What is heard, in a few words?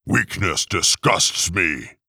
Weakness Disgusts Me, Orc; Urok the Orc